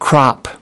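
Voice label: "crop", English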